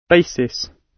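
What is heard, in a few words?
"basis", British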